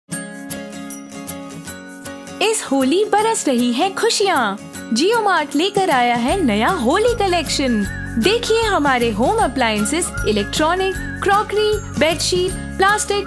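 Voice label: Holi Hindi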